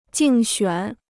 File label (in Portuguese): "竞选", Chinês